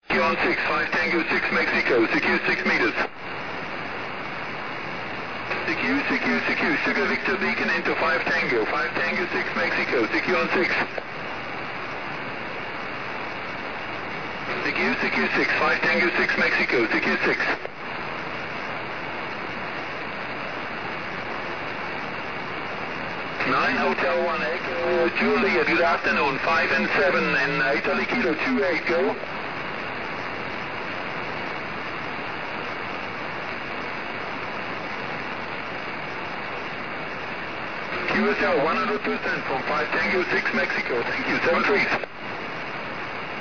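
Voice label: 6m single sideband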